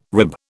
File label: "rib", British